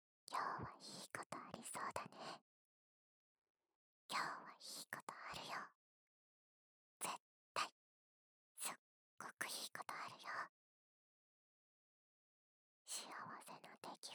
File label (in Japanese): 囁き 左耳 今日はいいことある